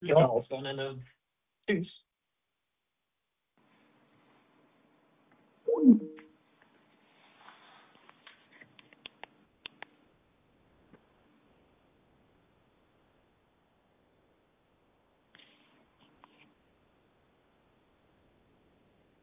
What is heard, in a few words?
voicemail